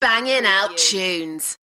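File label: UK female, voice drop; Banging out tunes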